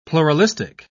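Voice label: "pluralistic", British English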